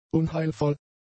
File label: "unheilvoll", German; adjectives